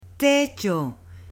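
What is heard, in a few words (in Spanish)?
"techo", Spanish, female; OBJETOS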